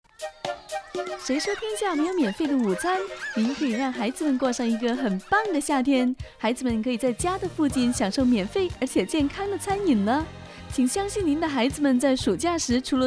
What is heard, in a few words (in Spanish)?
locutora china